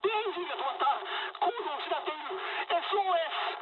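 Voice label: Japanese damaged engine mood high; War Thunder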